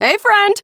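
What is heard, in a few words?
Ambient Female Brisk, Dialog; Duviri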